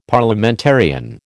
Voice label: "Parliamentarian", American